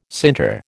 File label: "sinter", American